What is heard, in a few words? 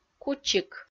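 "кучик", Komi, female; kpv; audio dict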